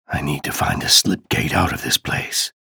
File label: chatter negative; ranger; respawn